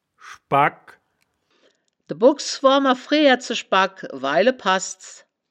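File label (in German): "schbagk", Wittlicher Wörterbuch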